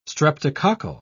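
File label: "streptococcal", English, British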